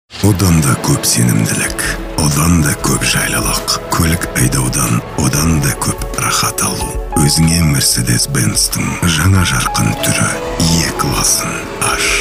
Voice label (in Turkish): Kazakca Erkek, Erkek Ses; seslendirme